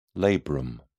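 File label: "labrum", British English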